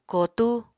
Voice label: "kootú", Apatani